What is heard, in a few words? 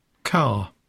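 "car", English; poitiers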